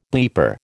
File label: "bleeper", American